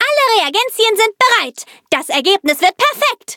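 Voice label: level up, German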